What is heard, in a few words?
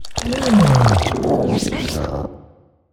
portrait synthetic; Stellaris; machineage